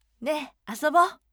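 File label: voice ne asobo firm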